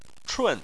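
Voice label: "chun" fourth tone, Chinese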